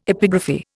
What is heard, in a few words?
"epigraphy", Indian